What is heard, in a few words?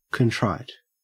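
"contrite", Australian English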